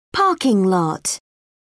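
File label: "parking lot", British English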